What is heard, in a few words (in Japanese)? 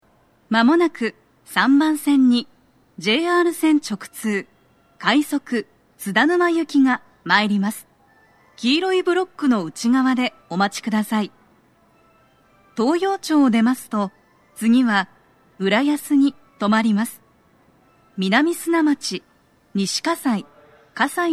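接近放送, 女声; 放送は「快速 津田沼行き (混雑付帯)」です。; 大手町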